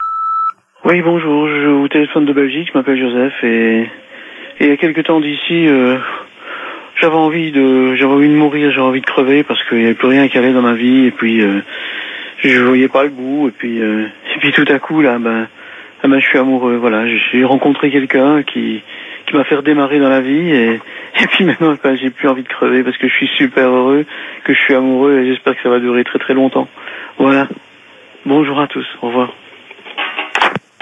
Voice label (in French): repondeur; Amoureux